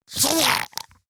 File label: Pain, Grineer Ghoul; Warframe; Grineer Ghoul Expired; Battle Barks